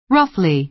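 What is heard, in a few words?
"roughly", American English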